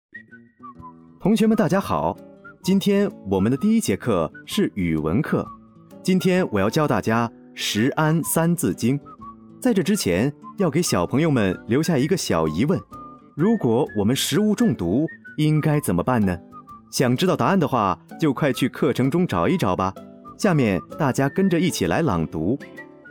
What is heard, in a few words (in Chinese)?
儿童课程